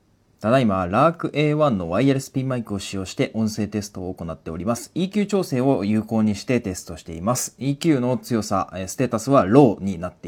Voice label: EQ Low; Hollyland Lark A1